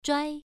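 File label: "zhuai1", Chinese pronunciation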